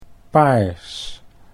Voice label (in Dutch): "paars", Dutch